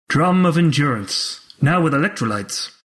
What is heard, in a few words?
announcer drums one; announcer dlc